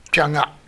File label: "jaga", minamiyamagata